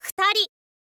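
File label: "futari", Japanese, girl; voice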